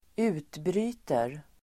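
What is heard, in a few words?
"utbryter", Swedish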